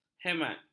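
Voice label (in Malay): "hemat", Malay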